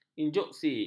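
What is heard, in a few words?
"injuksi", Malay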